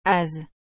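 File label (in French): "hase", French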